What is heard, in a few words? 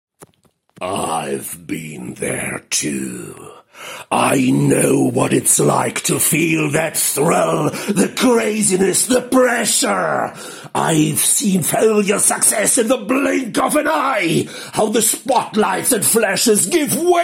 SCARY MOVIE TRAILER; SCARY MOVIE TRAILER - CINE DE TERROR - ENGLISH - evil-magical-diabolical-power-obsessed